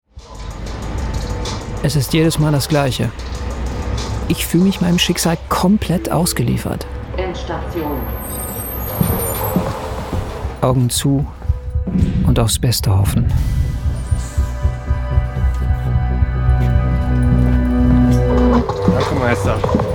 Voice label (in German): Voiceover sanft